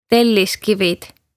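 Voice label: "Telliskivid" Estonian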